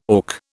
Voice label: "auk", British